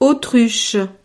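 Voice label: "autruche", French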